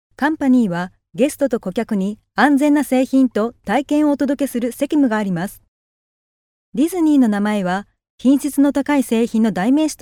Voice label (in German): Nachvertonung Film Sprecherin Japanisch